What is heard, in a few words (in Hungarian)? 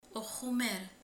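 "tészta", Hungarian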